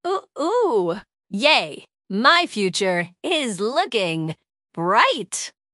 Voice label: happy, speech; fertig classic games